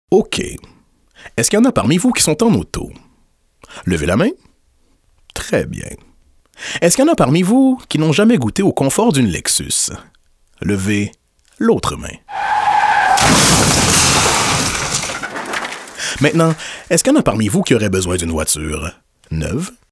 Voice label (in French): Voix français